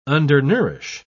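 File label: "undernourish", English, British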